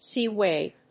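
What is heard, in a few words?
"sea wave", English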